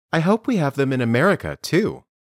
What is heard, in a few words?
Dictation